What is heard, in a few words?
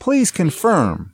US male "please confirm"; lynne publishing